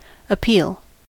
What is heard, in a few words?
"appeal", us